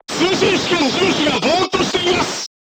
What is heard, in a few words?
voice message machine gunner contused, crew tanks japanese